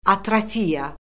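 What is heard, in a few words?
"atrofiya", Azerbaijani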